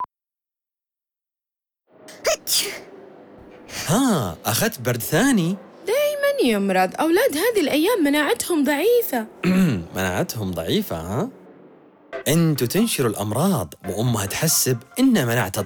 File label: Lifebuoy, Saudi